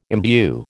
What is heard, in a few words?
"imbue", American